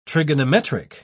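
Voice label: "trigonometric", British English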